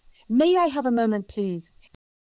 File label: Voice Acting es